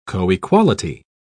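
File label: "coequality", English, British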